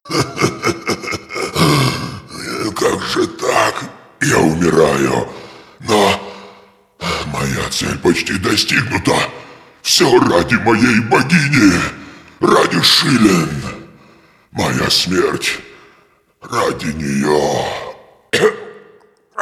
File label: cinematic voice, ru; lineage2